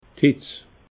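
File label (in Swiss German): "hitz", Alsatian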